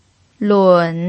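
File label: "lun", Mandarin Chinese pinyin, tone 3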